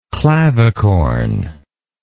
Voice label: "clavicorn", English

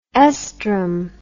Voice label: "oestrum", English

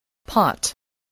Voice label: "pot", English

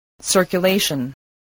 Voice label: "circulation", English, voice

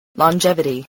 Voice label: "longevity", spelling

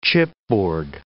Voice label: "chipboard", English

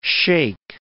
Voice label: "shake", English

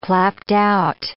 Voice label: "clapped-out", English

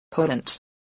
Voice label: "potent", English

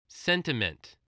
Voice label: "sentiment", english spelling

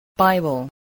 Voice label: "bible", English

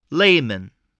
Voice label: "layman", English

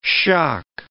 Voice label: "shock", English

